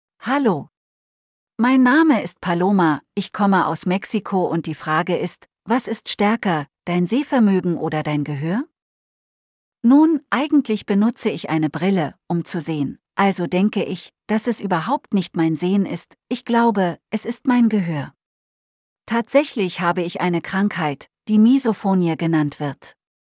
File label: Audiobook Voice